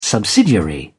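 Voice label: "subsidiary", British English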